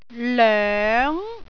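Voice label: "loeng2", Cantonese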